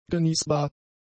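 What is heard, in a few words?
"genießbar", German; adjectives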